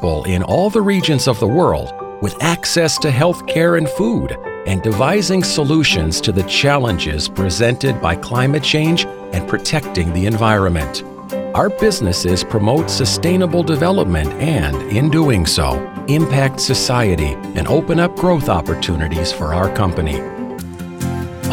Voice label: Corporate